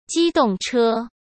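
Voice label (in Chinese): "机动车", Mandarin Chinese; chinese flashcards; hsk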